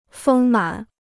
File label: "丰满", Chinese